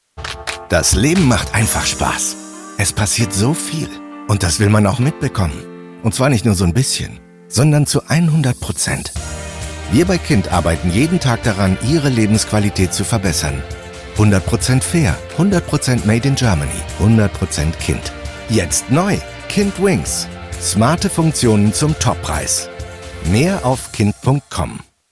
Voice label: tvc; kind; Muttersprache Deutsch

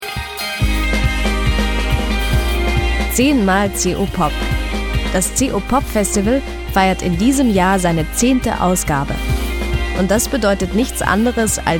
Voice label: voiceover; opopfestival

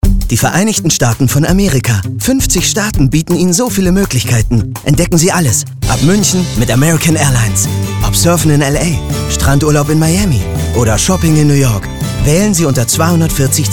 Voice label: funk musicversion; american airlines; us of adventure

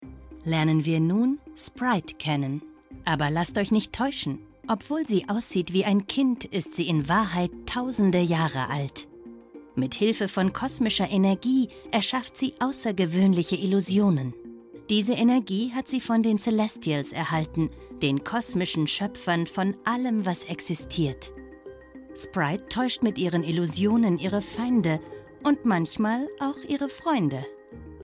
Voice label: explainer kids; marvel eternals sprite; Muttersprache Deutsch

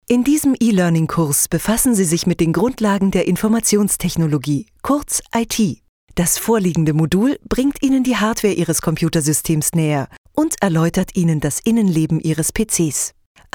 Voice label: elearning

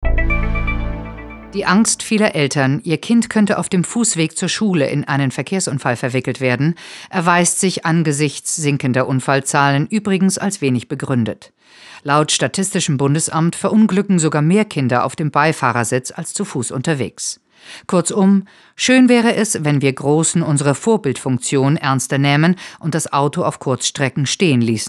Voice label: vo doku german

